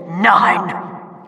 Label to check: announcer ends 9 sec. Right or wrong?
Right